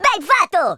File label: brilliant, Italian